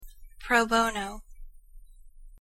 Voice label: pronunciation en pro bono female